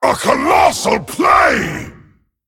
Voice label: Male Dragon WOW; en